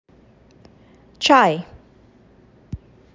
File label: Hindi